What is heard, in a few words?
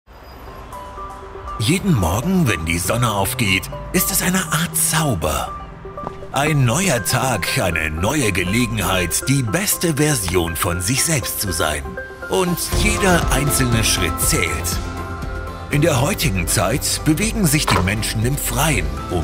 german corporate videos